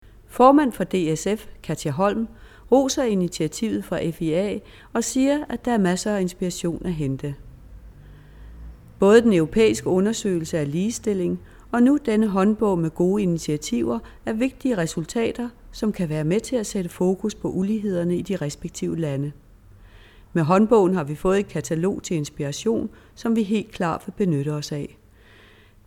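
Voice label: Danish, General; GoLocalise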